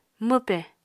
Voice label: "mwpe", Apatani